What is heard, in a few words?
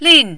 "lin4", mandarin